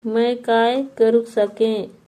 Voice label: Me kaay karu sake, Halbi; What can I do?

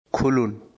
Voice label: Bengali, Bangladeshi Accent; Khul/ kholo/ khulun

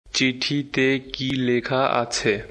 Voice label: Bengali, Bangladeshi Accent

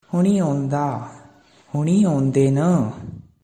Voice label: "Onda/ onde na", Kangri

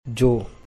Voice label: "Joo", Bhili

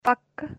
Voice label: Kashmiri; Come; Pakh

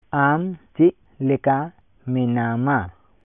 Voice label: Santali; How are you?

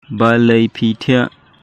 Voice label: Khasi; Why did you sleep?; Balei phi thiah?

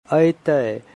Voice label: "Aaitey", Angika language; Will come

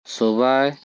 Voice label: "Subaye", Korku; Sit

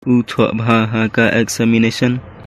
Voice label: "U thoh bha ha ka examination.", Khasi

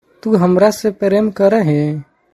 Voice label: Tu hamra se prem karahen?, Magahi; Do you love me?

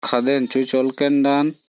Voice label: Korku

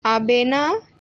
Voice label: "Abena", Ho language

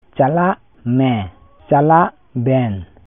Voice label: Santali

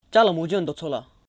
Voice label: Chalong o che ochoa la?, Lotha

